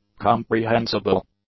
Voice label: "comprehensible", English; adjectives